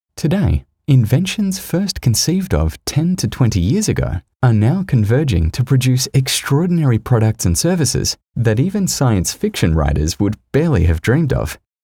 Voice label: Relaxed